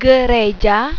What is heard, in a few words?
church, malaysian